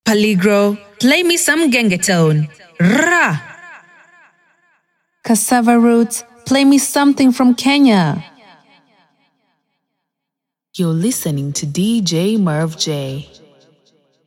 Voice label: east african female voice; dj drops by various voices